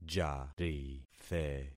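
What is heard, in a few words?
"jarithe", concatenated syllables; Affixing experiment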